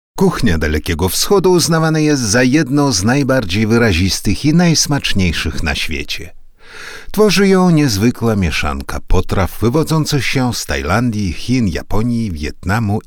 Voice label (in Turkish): Lehce Erkek; lehce seslendirme; erkek sesler